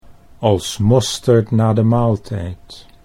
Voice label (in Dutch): als mosterd na de maaltijd, Dutch